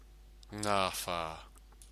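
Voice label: "ngawha", Māori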